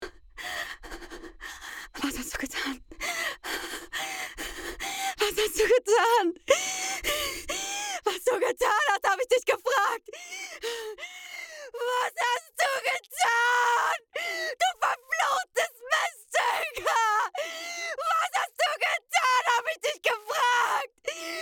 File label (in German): Rolle schreien